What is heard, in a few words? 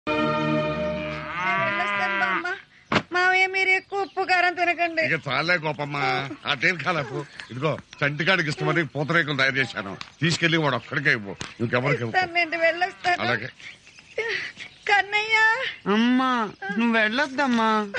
Mother Sentiment Dialogue Telugu Ringtone; Murari